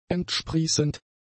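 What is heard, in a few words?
"entsprießend", German; adjectives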